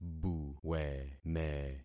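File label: "buweme", concatenated syllables; Affixing experiment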